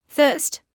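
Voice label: "thirst" English, British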